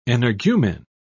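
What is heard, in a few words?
"energumen", British English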